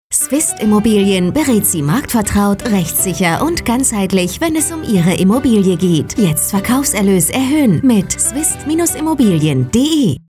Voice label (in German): Funkspot; swist immobilien